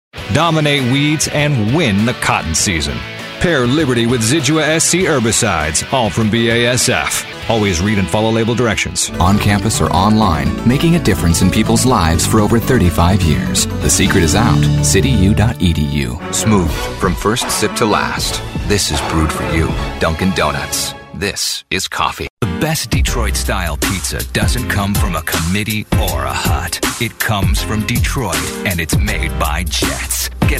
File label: Commercial